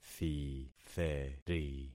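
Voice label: "thitheri", concatenated syllables; Affixing experiment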